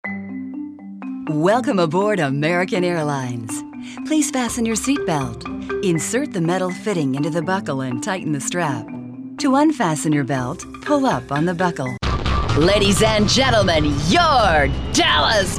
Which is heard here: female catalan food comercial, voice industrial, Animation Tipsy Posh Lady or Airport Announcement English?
voice industrial